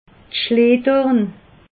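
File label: "schleekorn", Alsatian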